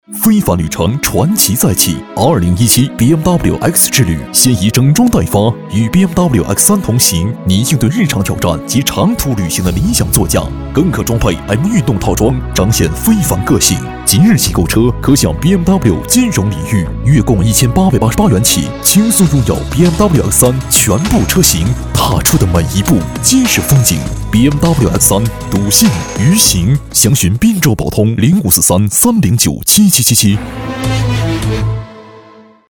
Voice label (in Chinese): 男 广告 电台硬广 磁性 有力 时尚; BMW经销商